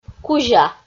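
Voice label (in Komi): "кузя", Komi-Zyrian, female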